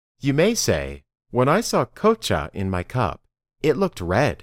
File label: Dictation